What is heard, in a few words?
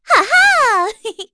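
Vox Attack, voices heroes English; kingsraid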